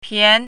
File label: "pian2", Chinese pinyin